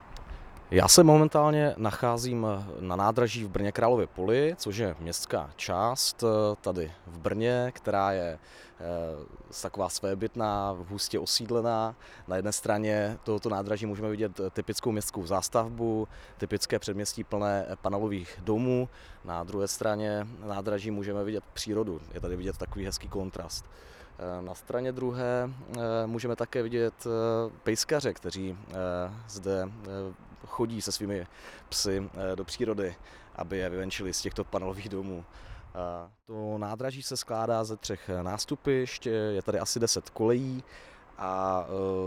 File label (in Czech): narace